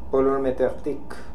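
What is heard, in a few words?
"Polormedekhtic", pronunciations; Fourth Way; Beelzebub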